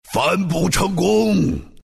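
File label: deny, chinese; dota2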